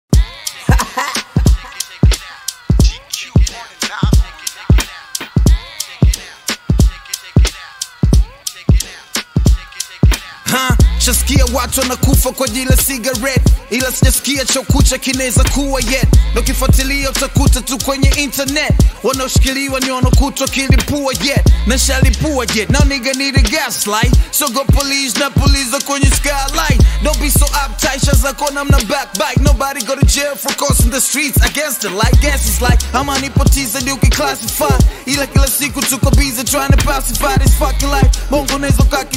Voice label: Commercial Break